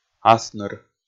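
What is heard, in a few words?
"асныр", Komi, male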